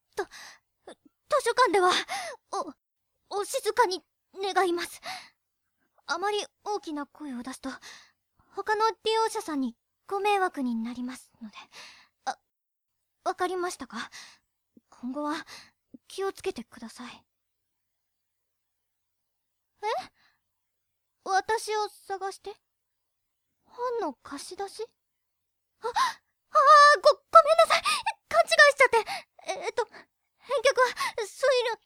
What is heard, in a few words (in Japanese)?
気弱